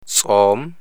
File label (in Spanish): "som", amharico